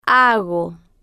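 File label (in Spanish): "hago", Spanish, female, slow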